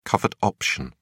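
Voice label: "covered option", British English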